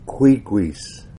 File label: kwigwis, kwak'wala; Kwakwaka'wakw